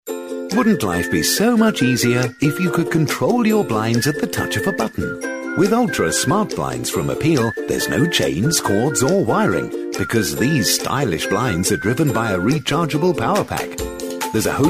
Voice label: tv promos